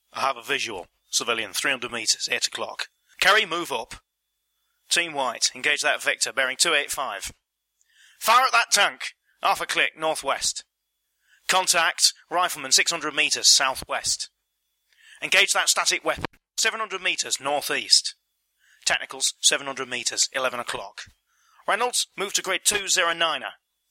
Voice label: samples British